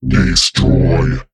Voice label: Male Mech Attack